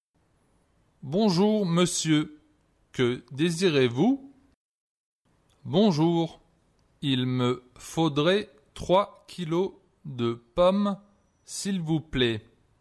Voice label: Conversation, French